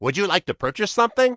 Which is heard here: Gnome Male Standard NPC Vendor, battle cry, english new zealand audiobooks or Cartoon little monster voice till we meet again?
Gnome Male Standard NPC Vendor